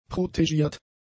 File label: "protegiert", German; adjectives